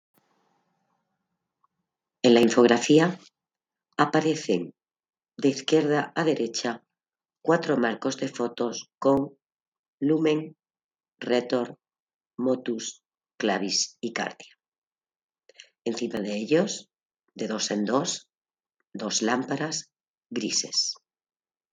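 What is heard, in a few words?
count to five in french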